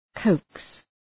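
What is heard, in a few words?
"coax", English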